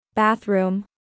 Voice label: "Bathroom", English